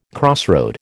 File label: "crossroad", American